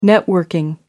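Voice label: "networking", British English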